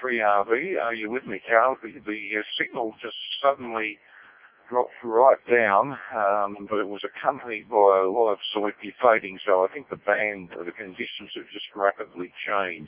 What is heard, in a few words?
decoded speech, parrot